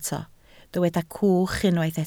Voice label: "cwch", Welsh